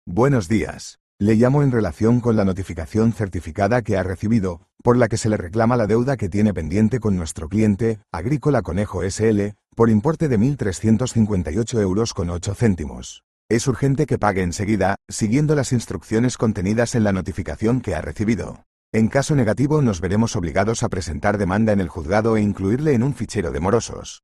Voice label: audio call